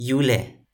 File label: "jule", Toki Pona